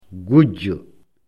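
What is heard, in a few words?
"gujjo", Fula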